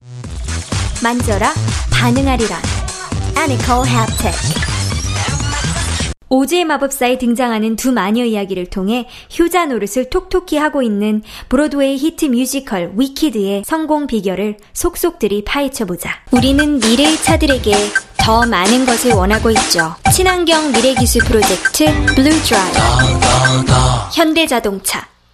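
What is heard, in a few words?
Korean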